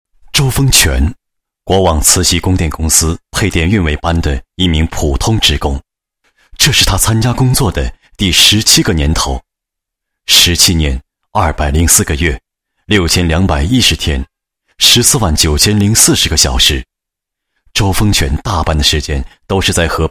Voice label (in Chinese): 男 人物; 国网某供电公司职工